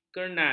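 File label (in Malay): "kernai", Malay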